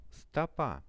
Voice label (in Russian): "stopa", Russian